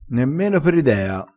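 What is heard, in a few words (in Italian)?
"nemmeno per idea", dialettale crespinese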